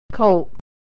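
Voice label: "colt", American English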